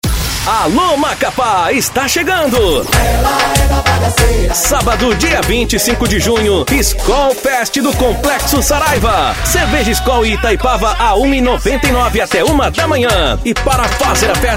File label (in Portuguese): LOCUTOR; chamadas; SKOL FEST